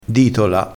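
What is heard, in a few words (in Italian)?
"ditola", dialettale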